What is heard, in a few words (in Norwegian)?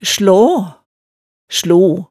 "slaa", hemsedalsmaalet